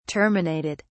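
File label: "terminated", British English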